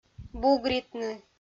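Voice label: "бугритны", Komi, female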